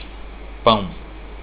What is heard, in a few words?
bread, portuguese